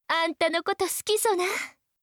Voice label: 好きです, 愛媛; 貴音

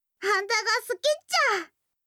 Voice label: 好きです 大分; やよい

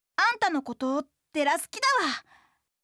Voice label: 好きです 愛知; 真

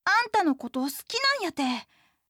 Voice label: 好きです 岐阜; 真

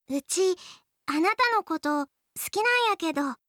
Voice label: 好きです 三重; 春香

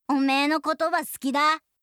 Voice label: 好きです 秋田; 響

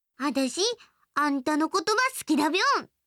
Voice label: 好きです, 青森; 真美

